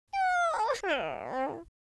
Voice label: sad, Irish; speech